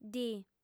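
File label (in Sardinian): "ddi", female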